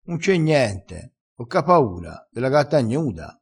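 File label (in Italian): "ave paura della gatta", dialettale crespinese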